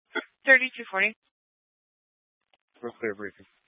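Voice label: Police 1 Dispatch, Scanner audio recording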